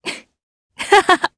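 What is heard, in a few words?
Vox Happy, Japanese; Tanya; kingsraid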